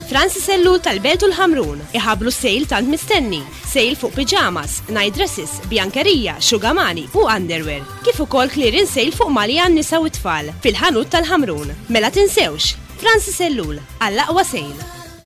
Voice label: Maltese